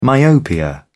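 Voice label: "myopia", British English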